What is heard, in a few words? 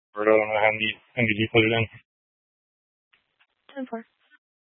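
Tempe Police Department, scanner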